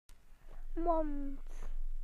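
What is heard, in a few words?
"mouth", British English; PictureDictionary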